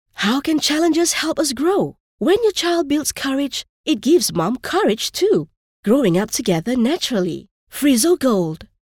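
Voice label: ENG female voice over Motherly; Friso Gold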